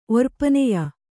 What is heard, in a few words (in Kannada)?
"ಒರ್ಪನೆಯ", Kannada